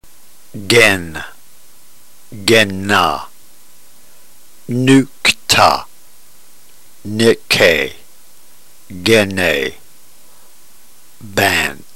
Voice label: drill, ntgreek